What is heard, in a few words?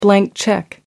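"blank check", British English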